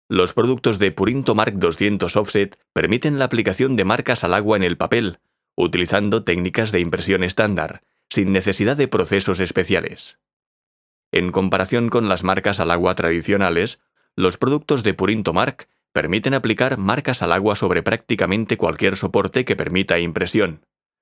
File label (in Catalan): NARRACIO CASTELLA